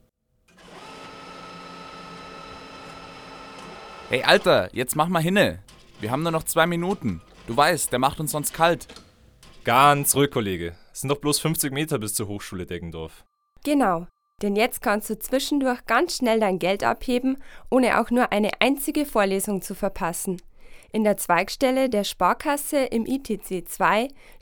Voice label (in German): Werbung Sparkasse